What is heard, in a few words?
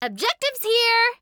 Announcer Match Begin F; Evie